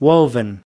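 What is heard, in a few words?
"woven", US English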